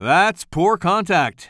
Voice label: commentator